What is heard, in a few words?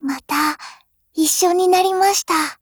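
COMBINE, Japanese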